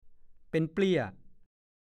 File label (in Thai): "เป็นตา", ภาษาโคราช; น่า... เช่น เป็นตากิน (น่ากิน)